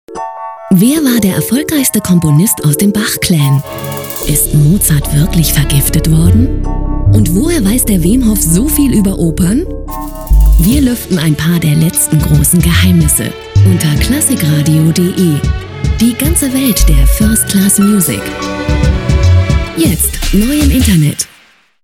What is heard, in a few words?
Stationvoice Promo; Klassikradio